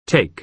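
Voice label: "take", American English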